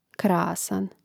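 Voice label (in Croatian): "krasan", Croatian; Mrežnik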